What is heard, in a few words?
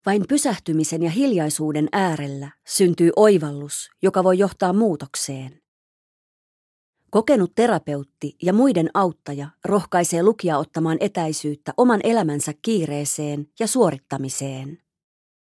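Finnish, Audiobook, female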